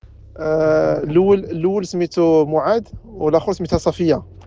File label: voice message